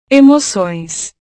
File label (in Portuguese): "emocoes", portugues